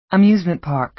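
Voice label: "amusement park", American English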